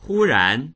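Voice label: "hu1ran2", Mandarin Chinese; 忽然; hūrán; 突然、急に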